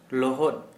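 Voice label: Santali, male, wet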